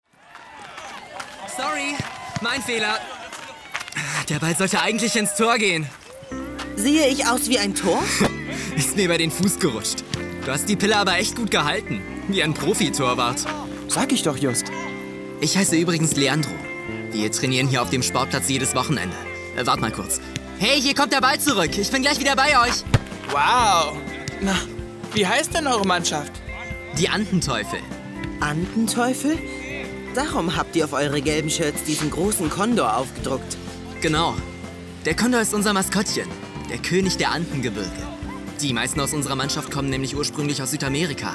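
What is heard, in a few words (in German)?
Hörbuch; sprecher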